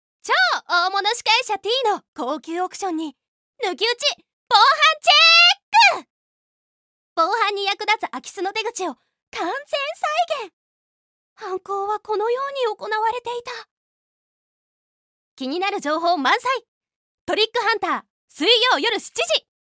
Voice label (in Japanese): ナレーション